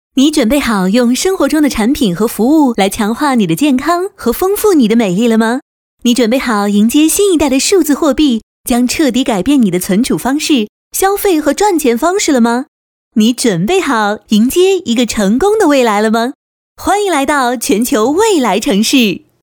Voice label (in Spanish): comercial chino mandarin